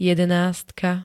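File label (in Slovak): "jedenástka", Slovak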